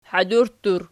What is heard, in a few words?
"hadurttur", Archi